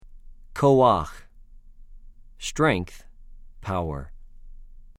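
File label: "כֹּחַ", Hebrew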